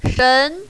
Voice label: "shen2", mandarin, female